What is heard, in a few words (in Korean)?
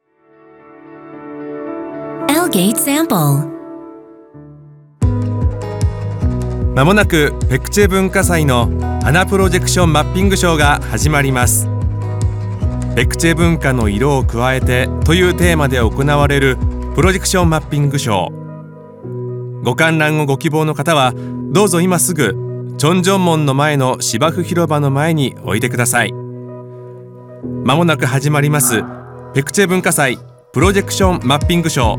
일본 남 밝은 톤